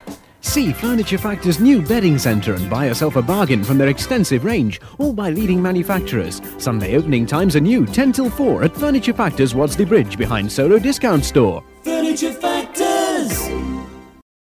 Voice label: Radio Advert, Bedding; Radio Hallam; Furniture Factoprs

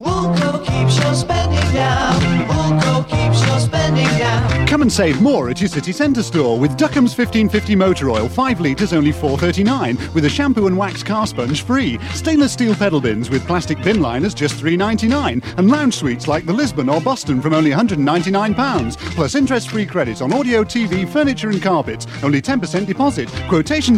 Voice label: Radio Advert; Woolco Offers; Radio Hallam

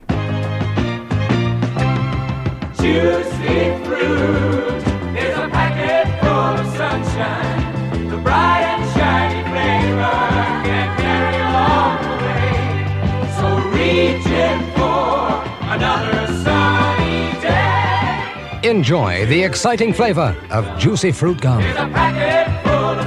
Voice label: Radio Hallam Advert; Juicy Fruit - Packet Full Of Sunshine

